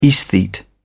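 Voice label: "esthete", British English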